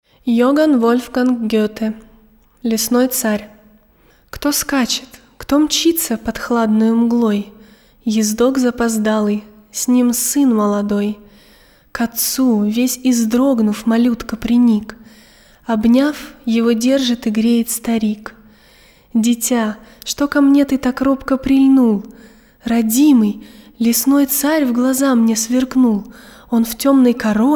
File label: Diktori studii rus; Лесной царь (И.В. Гёте)